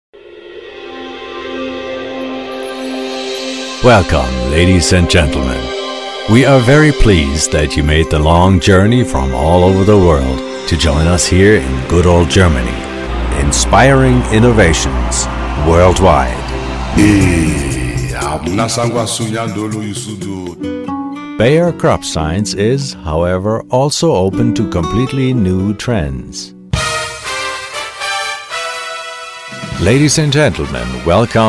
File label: Business Theater Narration EN